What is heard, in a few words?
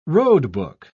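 "roadbook", British English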